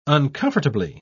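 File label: "uncomfortably", British English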